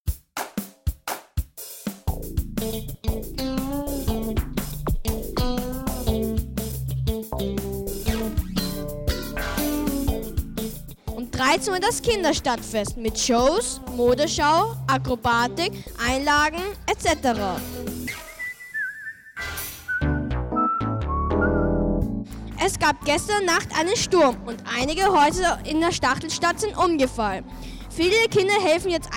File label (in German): News Radio